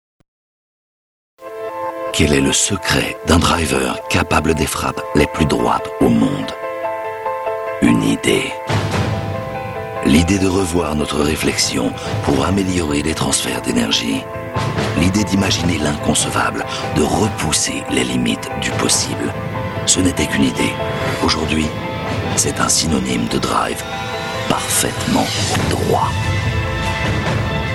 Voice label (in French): spot TV; Callaway Golf Post It